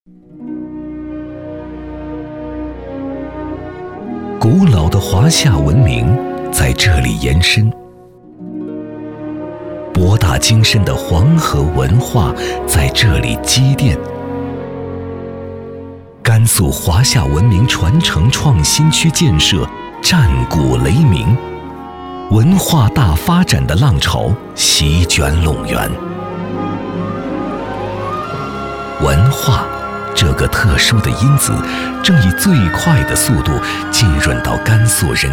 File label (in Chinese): 男国 纪录片 人文历史; 甘肃华夏文明